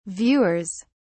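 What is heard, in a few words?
"viewers", British English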